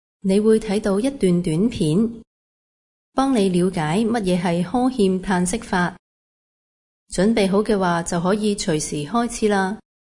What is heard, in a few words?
instruction yawn sigh; aphasia